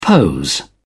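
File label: "pose", British English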